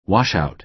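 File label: "washout", American English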